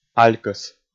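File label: "алькӧс", Komi, male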